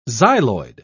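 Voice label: "xyloid", British English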